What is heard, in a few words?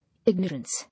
"Ignorance", English